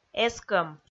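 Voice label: "эскӧм", Komi, female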